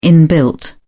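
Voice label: "inbuilt", British English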